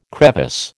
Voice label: "crevice", American